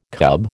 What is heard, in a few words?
"cub", American